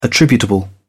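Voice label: "attributable", British English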